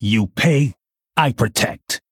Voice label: Male Human

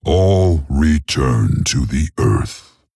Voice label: Male Tauren

